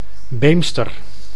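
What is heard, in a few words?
"Beemster", Dutch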